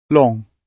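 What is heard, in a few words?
"long", British English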